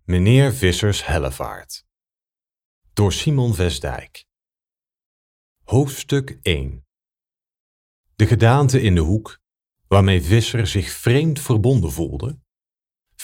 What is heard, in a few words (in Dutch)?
Dutch VO sample - narration; Meneer Visser's Hellevaart